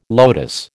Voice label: "lotus", American